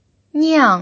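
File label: "niang", fourth tone, Mandarin Chinese pinyin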